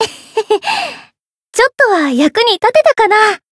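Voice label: win, Japanese; orleya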